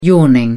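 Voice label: "yawning", British English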